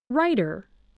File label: "writer", voice, english